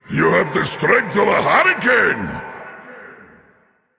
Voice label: Male Elemental Emote Well Played